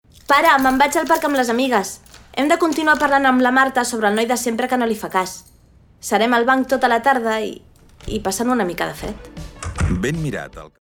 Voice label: female catalan teen comercial; ideasonora